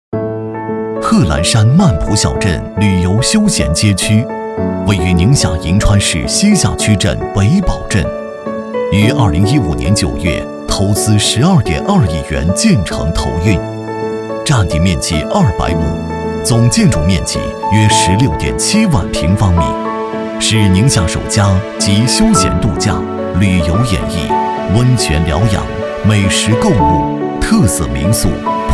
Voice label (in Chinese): 男 宣传 常规